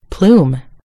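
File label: "plum", British English; gcompris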